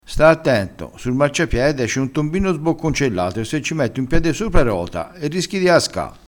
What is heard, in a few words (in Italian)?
"sbocconcellato", crespinese